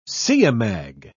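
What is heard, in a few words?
"siamang", British English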